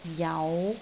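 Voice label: "jau5", Cantonese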